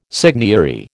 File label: "seigniory", American